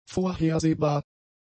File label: "vorhersehbar", German; adjectives